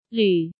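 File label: "Lǚ", Chinese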